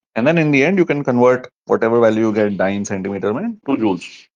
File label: voice message